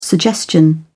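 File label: "suggestion", British English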